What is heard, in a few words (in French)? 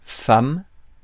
"femme", francais